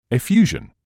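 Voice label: "effusion", British English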